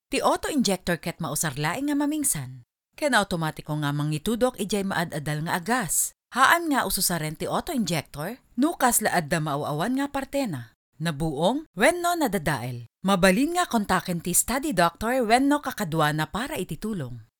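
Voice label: Ilocano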